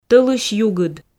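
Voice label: "тӧлысь югыд", Komi, female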